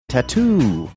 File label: saying tattoo funny manner